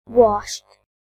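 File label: "washed", British English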